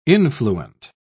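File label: "influent", British English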